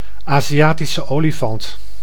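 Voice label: "Aziatische olifant", Dutch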